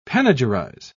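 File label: "panegyrize", British English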